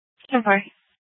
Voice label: Superstition Dispatch, Mesa PD